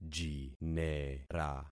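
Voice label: "jinera", concatenated syllables; Affixing experiment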